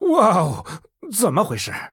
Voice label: Male Human Oops, zh